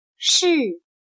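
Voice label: "士" Chinese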